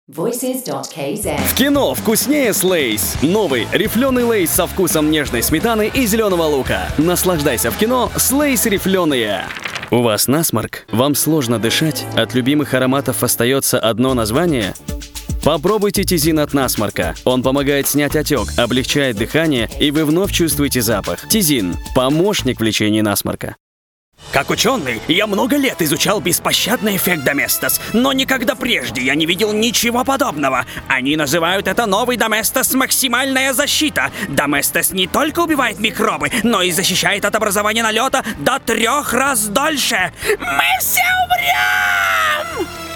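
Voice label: Russian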